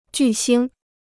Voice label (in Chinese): "巨星", Chinese